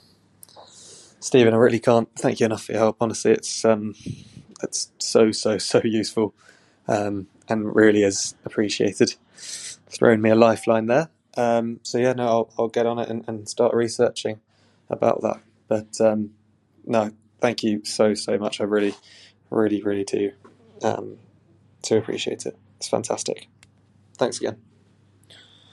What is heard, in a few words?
Thank you testimonial message